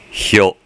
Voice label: "hyo", hiragana